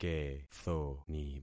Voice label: "kethoni", concat syllables; Affixing experiment